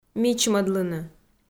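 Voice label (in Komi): "мичмӧдлыны", Komi, female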